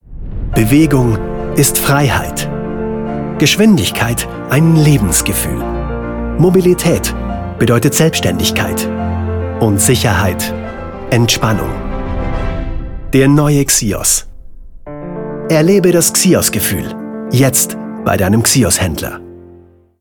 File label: German voiceovers advertising image